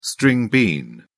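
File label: "string bean", British English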